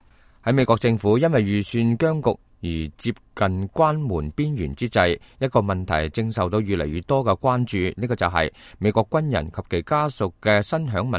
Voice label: cantonese, news